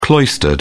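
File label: "cloistered", British English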